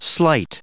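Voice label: "slight", US English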